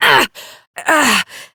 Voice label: Pain Adult Operator, en; Warframe; Dialog Barks

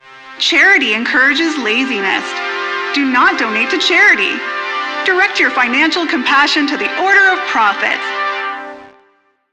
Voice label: PA System, en; Warframe; Solaris Venus

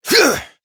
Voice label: Horse Mount Adult; Duviri

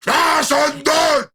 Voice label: Attack Grineer Ghoul Sargeant, en

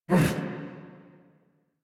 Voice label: Pain, en

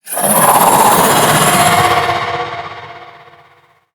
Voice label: Vocal Alerted; Enemies; Warframe; TheNewWar; Archon; Nira

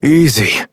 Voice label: Horse Calm Adult; Duviri

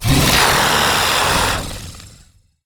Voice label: Attack, Battle Barks; Warframe; MiTW

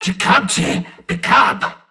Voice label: Surprised Crewmen; Warframe; CorpusCrewmen; CrewmanD

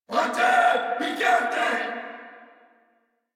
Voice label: Player Spotted Corpus Venus Male, en; Warframe; Battle Barks

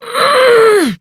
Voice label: Horse Stop Adult; Duviri

